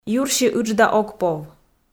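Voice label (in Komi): "юрси ыджда ог пов" Komi, female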